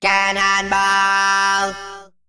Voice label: Male Mech Play